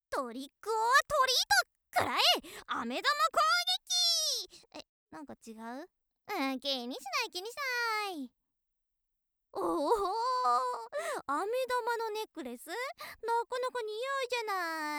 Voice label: 元気JK ハロウィンだよ

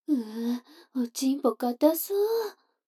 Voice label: 女の子台詞

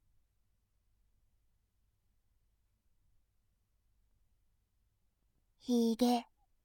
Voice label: ヒゲ, ASMR; モノラル

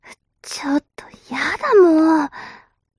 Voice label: mob女子台詞

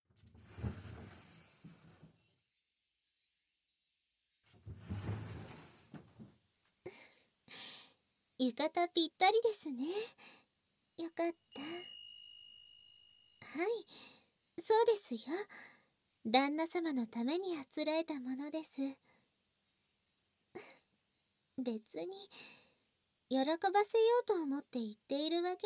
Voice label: 添い寝

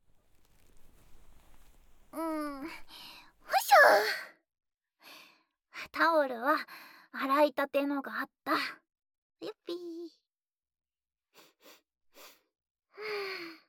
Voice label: ASMR ボディマッサージ

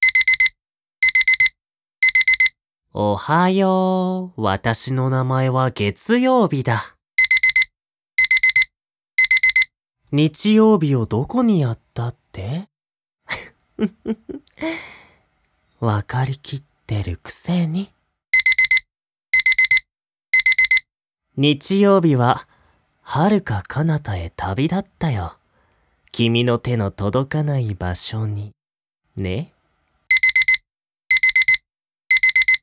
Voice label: 月曜日:やぁ アラーム付き

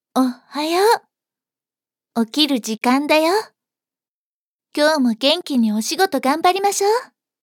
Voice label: 幼馴染, 幼馴染の女の子

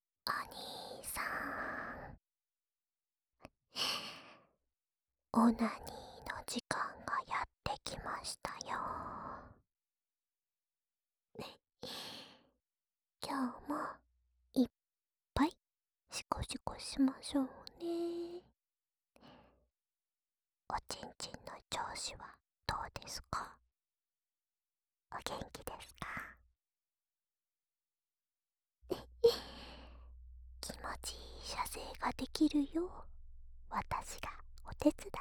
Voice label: シコシコボイス・左耳; オナニー用

